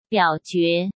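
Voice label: "表决" Mandarin Chinese; hsk; chinese flashcards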